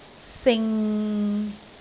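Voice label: "sing6", Cantonese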